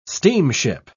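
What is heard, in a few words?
"steamship", English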